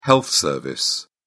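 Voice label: "health service", British English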